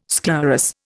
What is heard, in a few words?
"sclerous", Indian